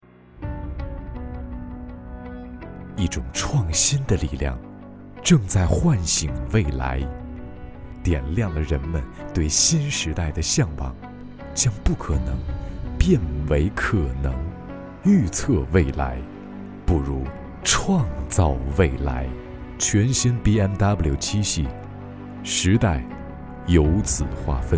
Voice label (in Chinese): 大气科技感广告向